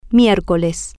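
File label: "mier-co-les", Spanish, female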